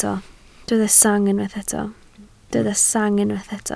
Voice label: "sang", Welsh

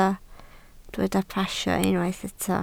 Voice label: "pasio", Welsh

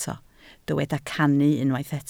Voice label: "cannu", Welsh

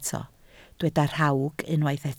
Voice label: "rhawg", Welsh

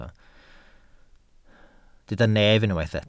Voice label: "nef", Welsh

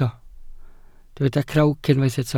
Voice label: "crawc", Welsh

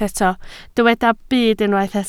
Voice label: "byd", Welsh

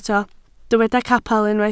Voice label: "capel", Welsh

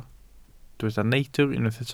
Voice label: "neidr", Welsh

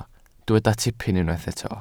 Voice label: "tipyn", Welsh

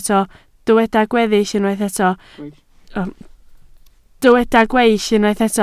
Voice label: "gweill", Welsh

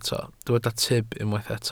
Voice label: "tyb", Welsh

